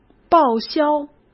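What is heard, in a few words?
"报销", Mandarin Chinese; hsk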